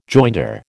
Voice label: "joinder", American